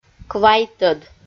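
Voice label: "квайтӧд", Komi, female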